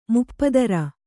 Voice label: "ಮುಪ್ಪದರ", Kannada